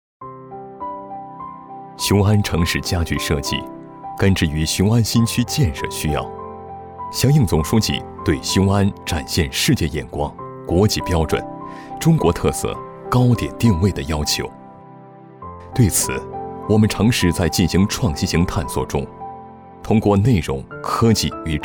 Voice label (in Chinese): 规划宣传; 雄安